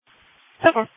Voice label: Police Dispatch